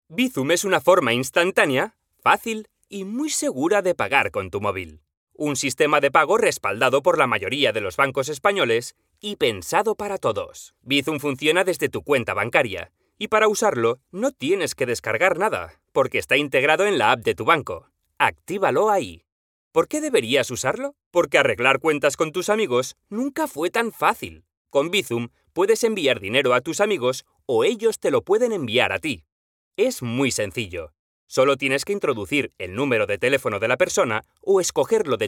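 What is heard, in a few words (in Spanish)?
E-Learning Joven y dinamico, voz en off; E-Learning – Young and Dynamic; Bizum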